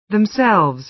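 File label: "themselves", American English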